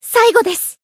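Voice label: Voice finish, Japanese; saki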